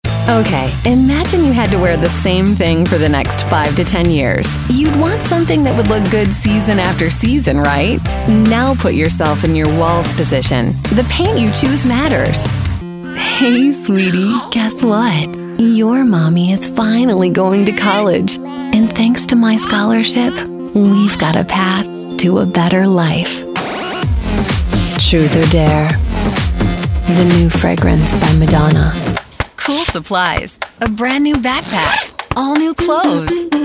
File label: Voiceovers Samples